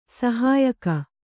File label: "ಸಹಾಯಕ", Kannada